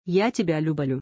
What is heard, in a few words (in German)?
Russisch, ich liebe dich